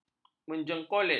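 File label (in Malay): "menjengkolet", Malay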